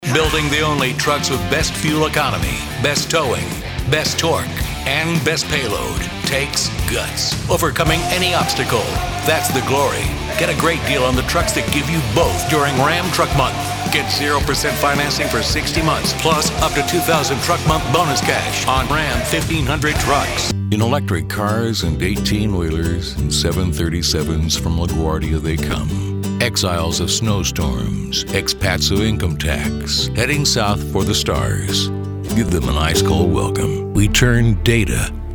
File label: Commercial